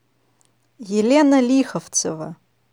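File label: Elena Likhovtseva, Russian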